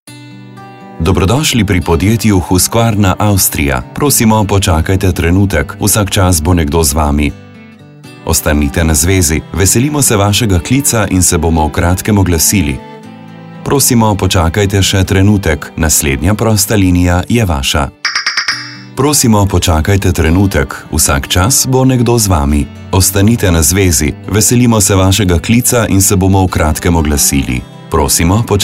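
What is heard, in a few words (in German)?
telefonansagen sprecher Slovenian; Native Speaker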